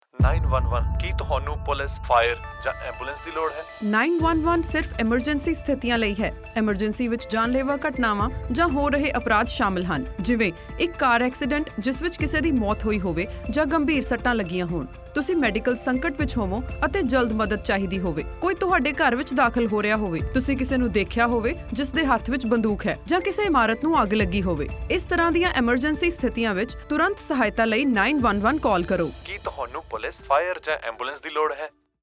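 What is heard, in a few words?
Punjabi, emergency; Edmonton Police Services; Radio Ad; 9-1-1 is only to be used in emergency situations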